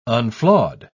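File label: "unflawed", English, uk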